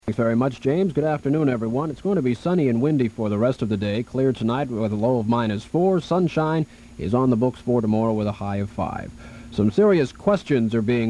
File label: News; CFNY; 1981; Rapist escapes, aircraft hit by lightning